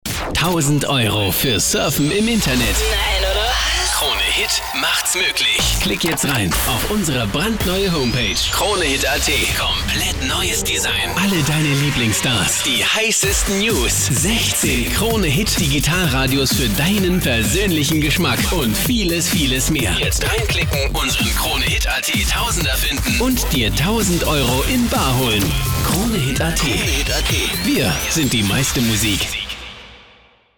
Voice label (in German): Station Voice Sprecher